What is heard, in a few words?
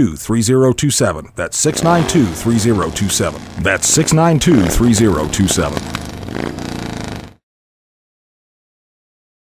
motorcycle training ad